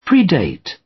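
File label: "predate", British English; Time, history; Thời gian, lịch sử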